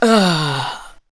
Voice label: SPOKEN VOX, ADLIPS